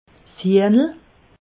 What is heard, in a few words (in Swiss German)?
"hiehnel", alsace